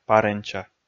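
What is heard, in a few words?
"паренча", Komi, male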